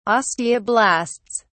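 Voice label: "osteoblasts", British English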